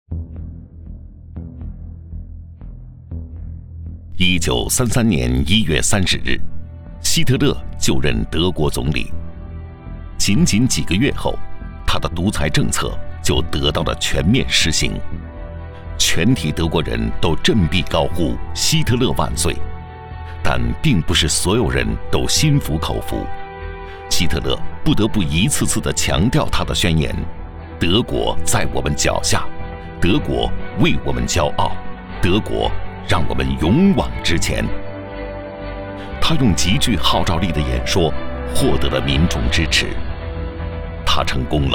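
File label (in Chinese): 二战回顾纪录片; 央视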